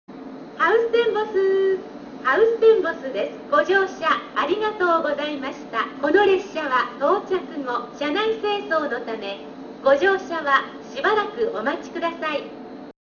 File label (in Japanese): 到着放送+清掃放送; ハウステンボス; 「ご乗車ありがとうございました」が独自フレーズになっていました。